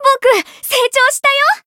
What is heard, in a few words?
Voice jp